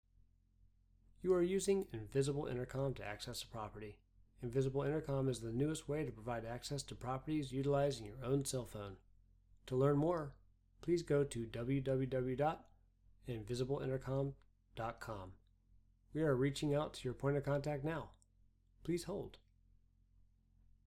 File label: advertising message